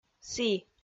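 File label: "сі", Komi, female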